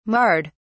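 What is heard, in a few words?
"marred", British English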